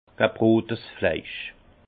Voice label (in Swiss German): "gebrotes fleisch", alsace; viande rotie